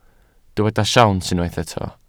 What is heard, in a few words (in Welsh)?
"siawns", Welsh